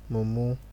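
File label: "mumu", Nigerian Pidgin, male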